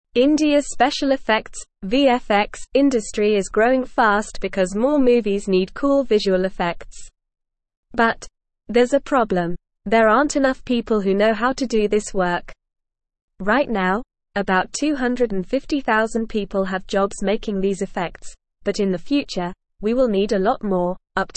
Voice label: English Lower Intermediate NORMAL Reading; India Needs More People to Make Movie Magic

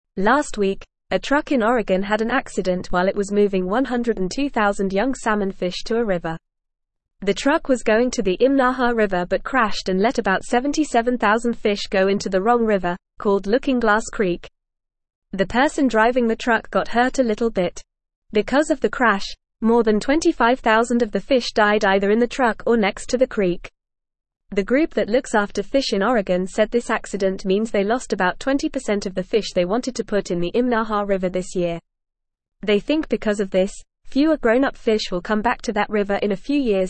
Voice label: English Lower Intermediate FAST Reading; Big Truck Accident Sends Baby Fish to Wrong River

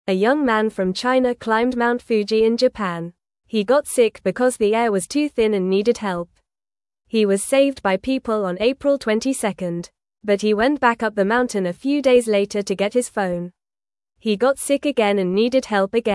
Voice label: English Newsroom Beginner FAST Reading; Young Man Climbs Mount Fuji and Needs Help Again

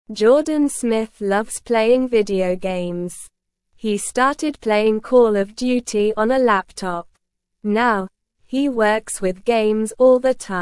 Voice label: English Beginner SLOW Reading; English Newsroom; Playing Games Can Be a Job

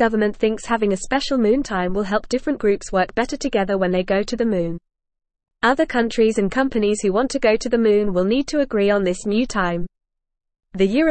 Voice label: English Newsroom Lower Intermediate FAST Reading; Moon Time A New Time Zone for Space

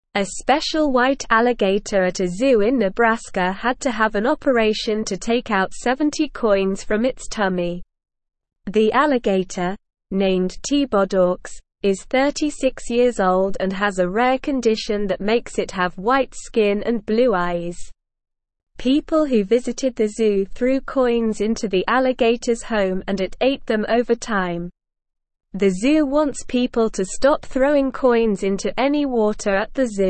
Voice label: English Upper Intermediate SLOW Reading; English Newsroom; White Alligator Undergoes Surgery to Remove Swallow

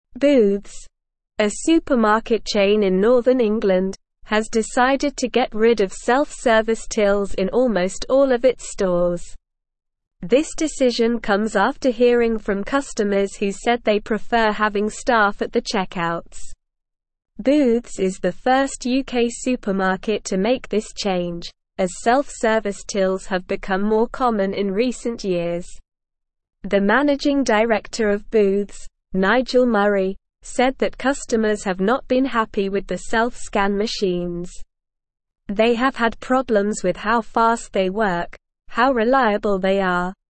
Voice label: English Newsroom Upper Intermediate SLOW Reading; Booths removes self service tills to prioritize hum

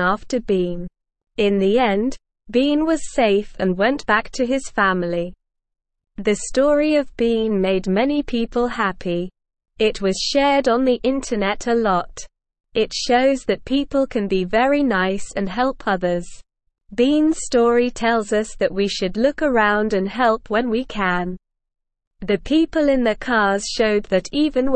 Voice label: Beginner SLOW Reading, English; English Newsroom

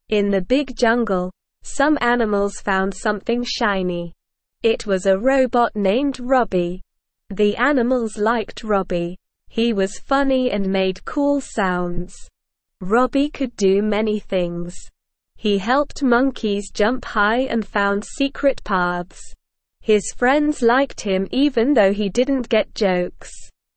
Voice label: ESL Short Stories for Kids Beginner SLOW Reading; The Robot in the Rainforest

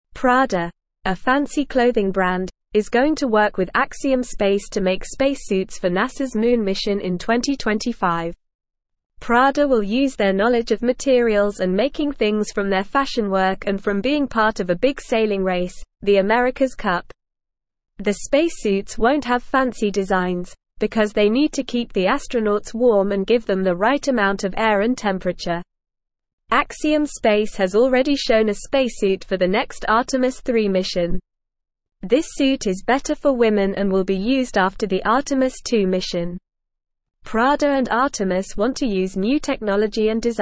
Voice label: English Lower Intermediate NORMAL Reading; Prada Helps Make Space Suits for Moon Trip; English Newsroom

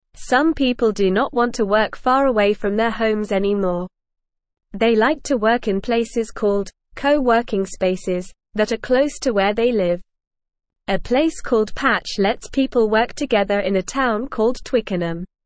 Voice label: English Beginner NORMAL Reading; English Newsroom; People like working close to home in co working spaces

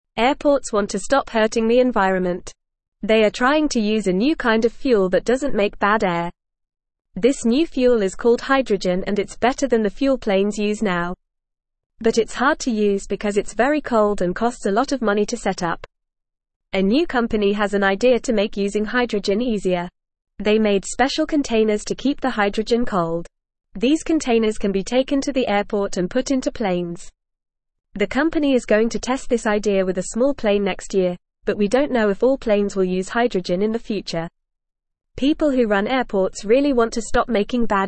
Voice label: English Lower Intermediate FAST Reading; Airports try new fuel made from water

